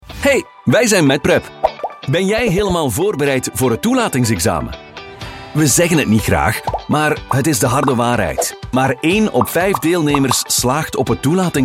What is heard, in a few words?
flemish voice friendly explainer